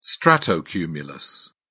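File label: "stratocumulus", British English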